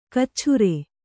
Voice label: "ಕಚ್ಛುರೆ", kannada

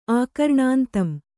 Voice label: "ಆಕರ್ಣಾಂತಂ", Kannada; ākarṇāntam

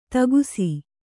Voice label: "ಥಕಾಸು", Kannada

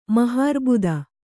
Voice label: "ಮಹಾರ್ಬುದ", Kannada

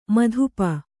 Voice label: "ಮದಿಪು", kannada

